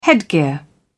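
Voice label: "headgear", British English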